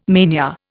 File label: "mania", Indian